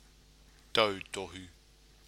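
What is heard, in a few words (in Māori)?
"tautohu", Māori word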